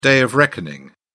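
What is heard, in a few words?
"day of reckoning", English, British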